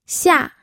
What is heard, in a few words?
"xia4", pinyin